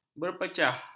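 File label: "berpecah", Malay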